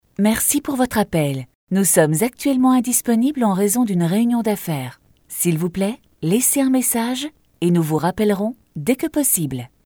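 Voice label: french interactive voice response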